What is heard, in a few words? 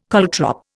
"caltrop", Indian